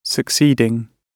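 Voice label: "succeeding", British English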